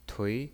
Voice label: "tui2", pinyin

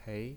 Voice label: "pei2", pinyin

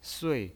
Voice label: "sui4", pinyin

